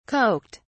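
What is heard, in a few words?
"coked", British English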